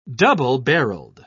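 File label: "double-barreled", British English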